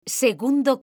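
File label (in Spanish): "segundo", male; mekanta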